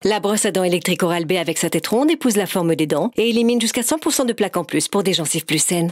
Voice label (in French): Pub TV; ORAL B